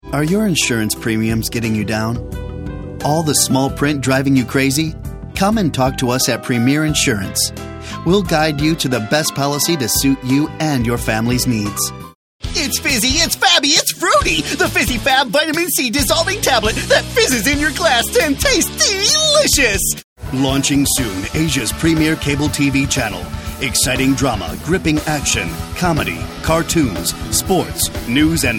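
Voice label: American, TV commercial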